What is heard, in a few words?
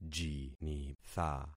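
"jinitha", concatenated syllables; Affixing experiment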